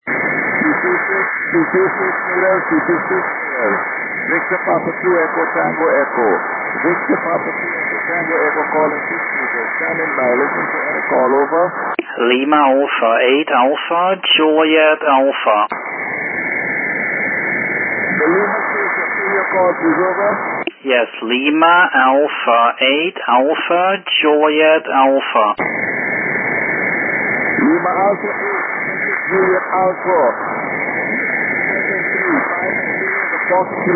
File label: 6m ssb